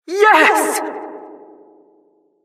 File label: announcer killstreak